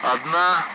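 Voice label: Speech, Russian; Allied Flight; Digits; DCS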